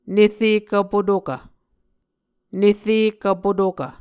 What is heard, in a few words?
"nere kvpotokv", muscogee